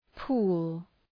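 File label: "pool", English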